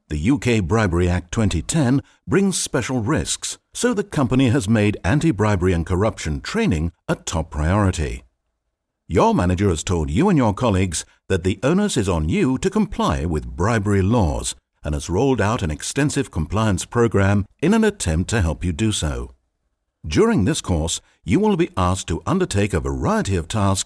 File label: e Learning